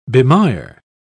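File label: "bemire", British English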